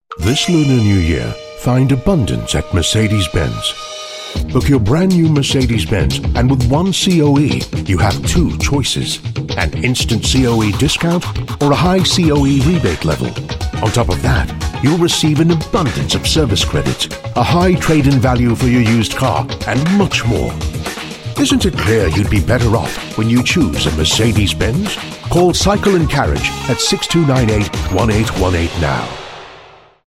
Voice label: Asian English Radio Spot; Mercedes